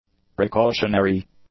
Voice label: "precautionary", english; adjectives